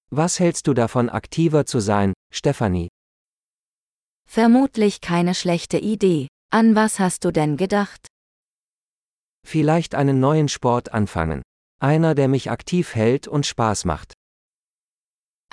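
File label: Sports - Dialogue, German; de-en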